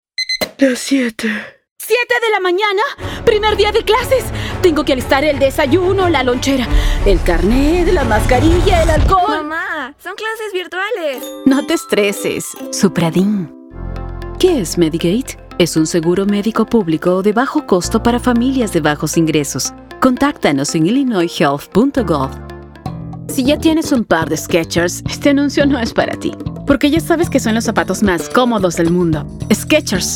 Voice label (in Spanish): comercial